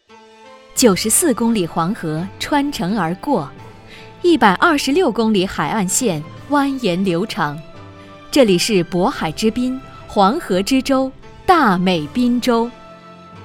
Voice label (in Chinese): 宣传片大气黄河生态文化